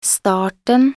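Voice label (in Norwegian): "starten", Norwegian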